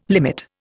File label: "limit", Indian